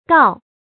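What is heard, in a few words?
"gao" fourth tone, pinyin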